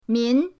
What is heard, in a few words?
"min2", pinyin